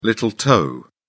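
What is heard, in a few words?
"little toe", British English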